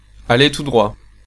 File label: Straight, French, male